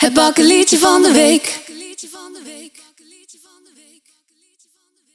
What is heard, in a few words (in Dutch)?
echo en reverb; Bakkelietje van de Week